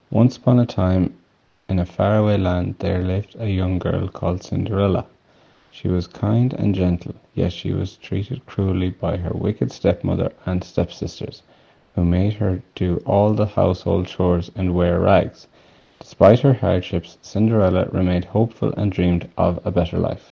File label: Irish Accent